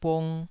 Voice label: "bung2", taiwanese